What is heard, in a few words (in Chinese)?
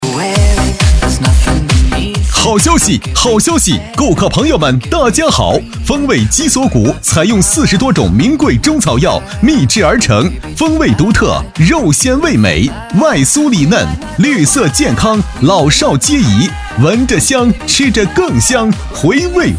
男 促销; 风味鸡锁骨